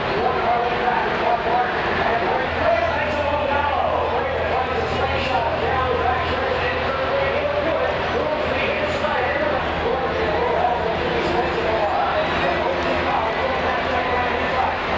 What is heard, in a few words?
Ambient Announcer; NASCAR Thunder 2003